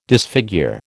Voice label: "disfigure", American

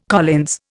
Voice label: "Collins", Indian

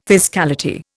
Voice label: "fiscality", Indian

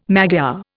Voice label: "Magyar", Indian

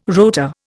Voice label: "rotor", Indian

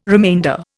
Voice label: "remainder", Indian

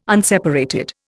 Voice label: "unseparated", Indian

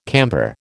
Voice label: "camper", American

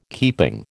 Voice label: "keeping", American

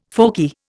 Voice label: "folky", Indian

